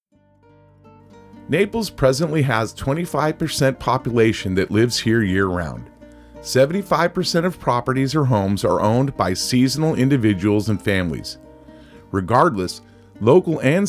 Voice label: voice with music; contractors